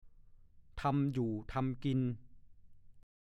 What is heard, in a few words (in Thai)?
"ทำอยู่ทำกิน", ภาษาโคราช; ทำอาหาร หุงหาอาหาร